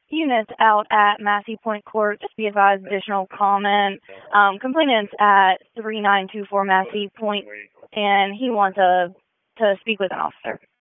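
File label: Police Northeast District Dispatch, p25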